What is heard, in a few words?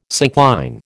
"syncline", American